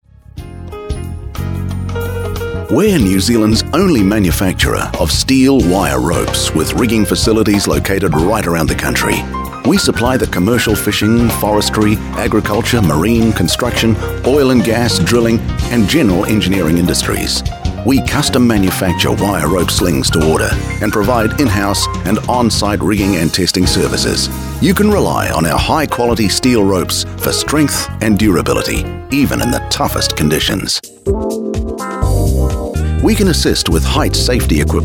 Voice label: manufacturing messages on hold